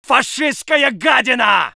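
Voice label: voice soviet infantry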